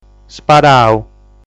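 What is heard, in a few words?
"Sparau", Sardinian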